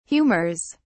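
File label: "humors", English, British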